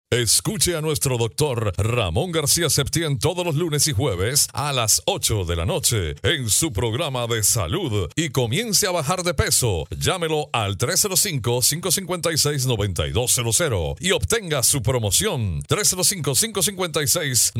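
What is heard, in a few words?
Spanish Americas, male, voice over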